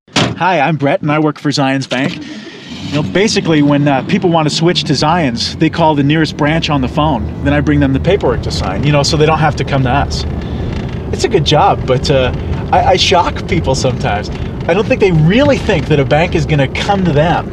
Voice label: voice-over; Bank Courier